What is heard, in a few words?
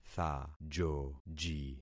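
"thajoji", concatenated syllables; Affixing experiment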